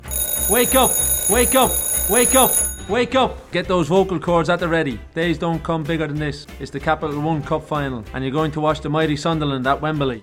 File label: alarm message; for sunderland fans